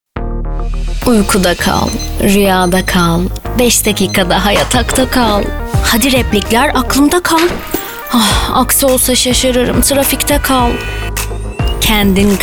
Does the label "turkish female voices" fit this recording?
Yes